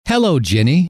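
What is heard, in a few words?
"hello", male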